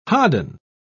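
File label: "hodden", British English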